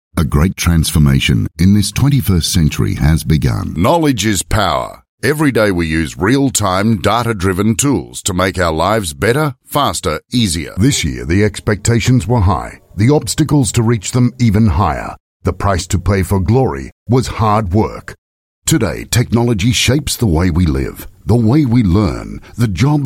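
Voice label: Australian English authoritative sound voice sample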